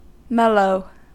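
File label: "mellow", American English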